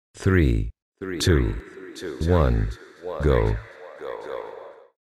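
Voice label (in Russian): мужской голос отсчитывает 3-2-1 go; voice fx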